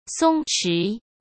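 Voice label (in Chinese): "松弛", Mandarin Chinese; chinese flashcards; hsk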